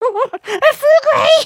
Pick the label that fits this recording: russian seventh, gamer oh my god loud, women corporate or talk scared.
talk scared